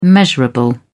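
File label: "measurable", British English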